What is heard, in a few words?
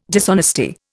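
"dishonesty", Indian English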